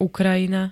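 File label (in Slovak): "Ukrajina", Slovenské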